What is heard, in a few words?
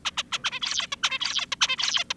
adult